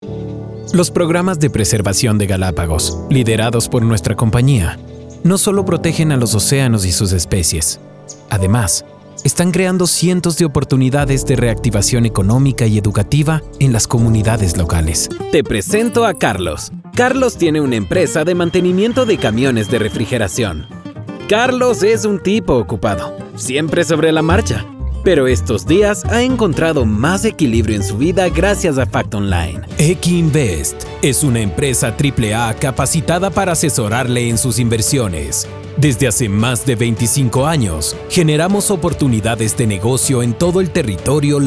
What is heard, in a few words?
spanish corporate